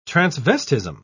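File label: "transvestism", British English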